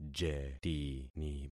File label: "jetini", concat syllables; Affixing experiment